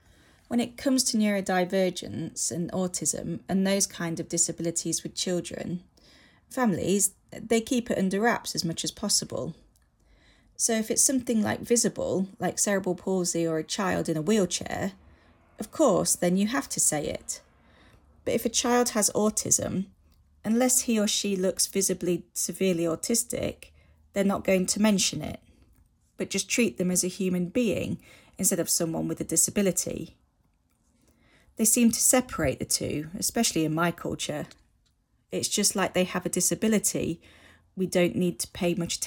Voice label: voiceover; Pathfinder; improving support carers somali and gypsy and traveller communities